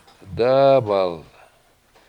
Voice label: "dAAbal" Sage Brush, Washo